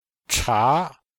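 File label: "cha2", Chinese; Cours de Chinois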